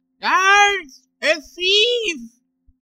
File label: Thief old; Guards! A thief!